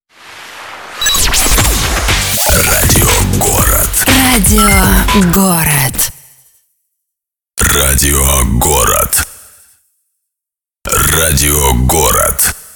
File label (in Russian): Radio Двухголосный